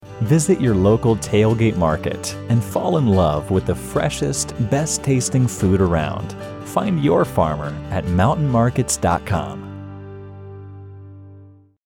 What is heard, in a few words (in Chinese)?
男美式英文广告; 网站广告